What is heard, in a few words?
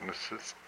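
"your skin", Hupa, male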